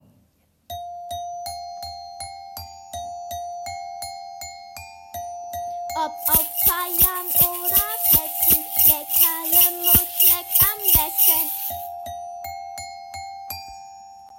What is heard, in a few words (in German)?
Radio Werbung; radio werbung vom jahrgang 3 an der gss